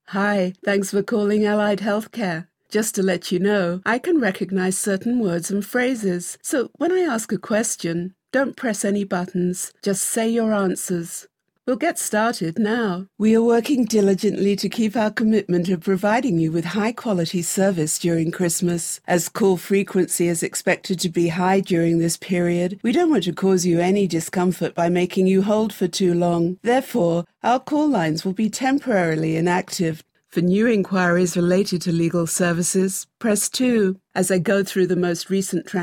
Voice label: UK IVR

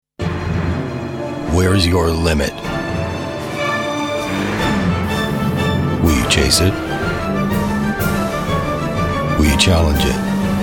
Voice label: TV tires; Bridgestone Potenza

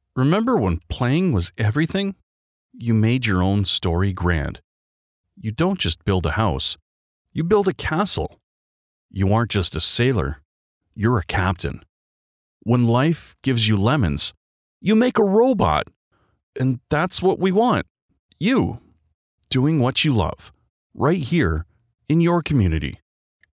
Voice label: Local TV

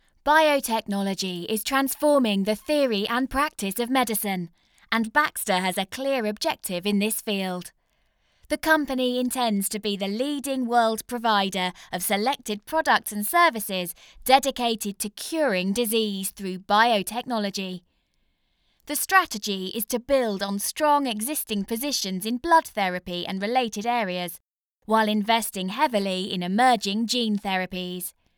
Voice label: corporate biotech

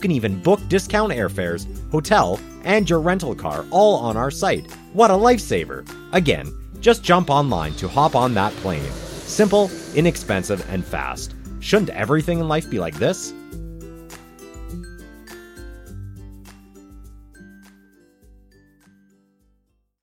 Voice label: travel commercial

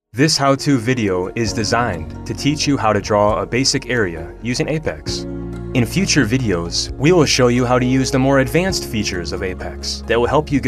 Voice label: E-learning Montage

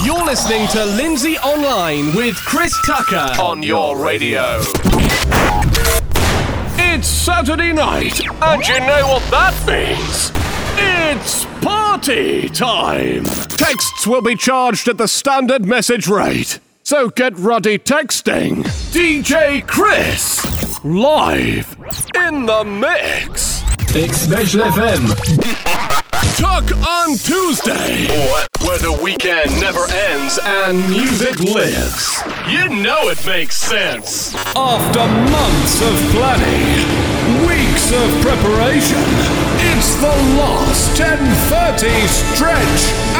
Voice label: Production Imaging

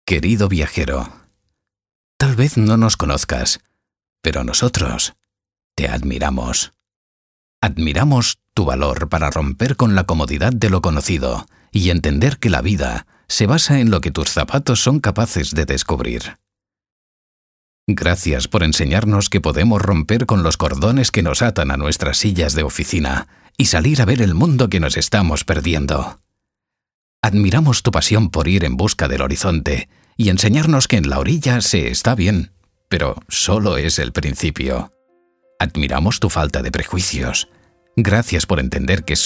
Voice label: spanish

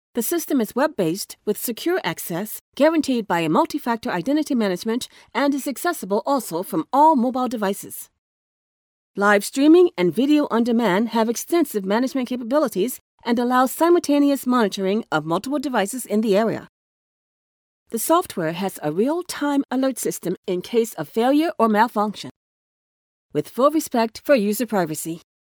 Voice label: Product Explainer Video

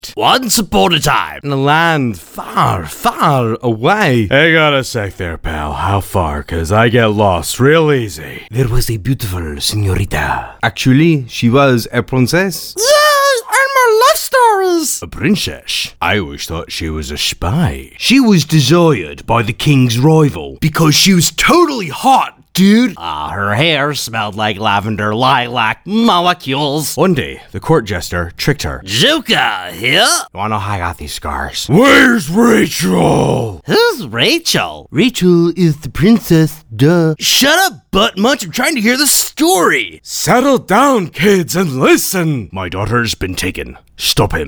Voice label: Animation

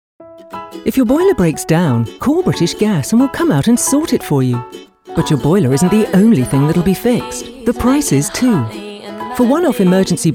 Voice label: Ad British

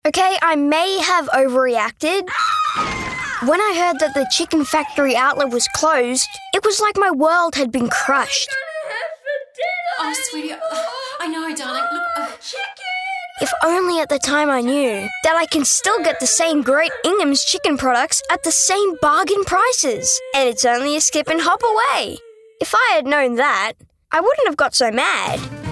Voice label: Radio Spot; ABCOE

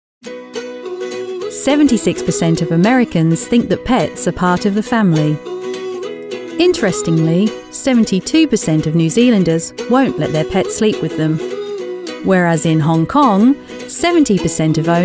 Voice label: Ad; HSBC

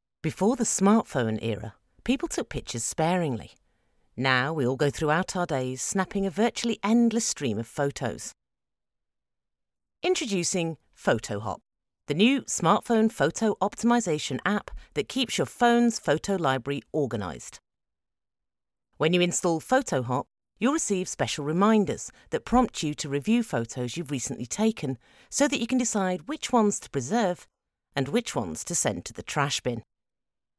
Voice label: explainer video

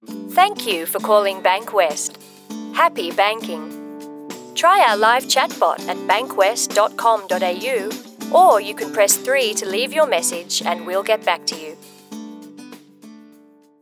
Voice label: On Hold

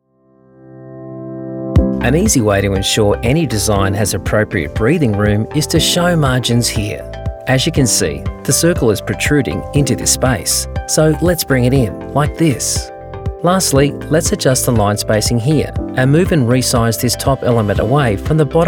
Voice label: eLearning

